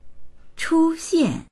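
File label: "chu1xian4", Chinese; 出现; 出現する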